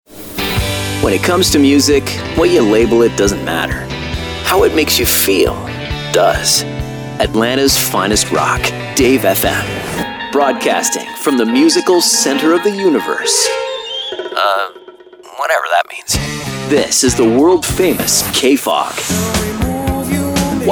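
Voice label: radio imaging male adult album alternative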